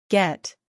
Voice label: "get", English